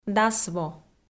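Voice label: "дасво", Komi, female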